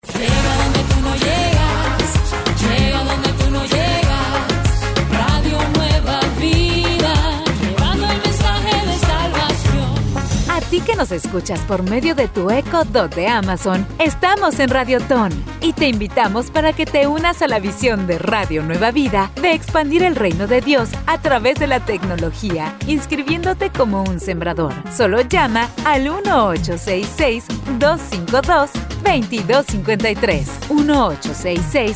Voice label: spanish mexican promos